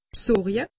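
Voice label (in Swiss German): "bsorje", Alsatian; medecine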